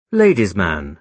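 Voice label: "ladies man", British English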